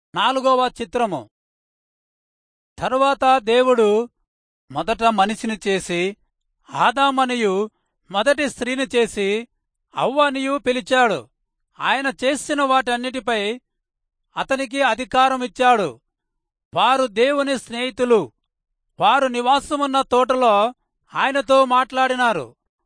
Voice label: telugu rayalseema; good news